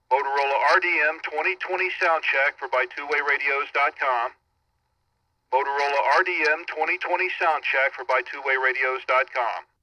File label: motorola rdm2020; radio samples